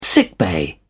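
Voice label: "sickbay", British English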